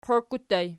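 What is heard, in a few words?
"qiokku" ergative plural, Archi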